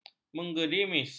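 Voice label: "menggerimis", Malay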